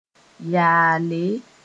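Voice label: "yaale", Mooré; however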